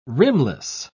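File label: "rimless", British English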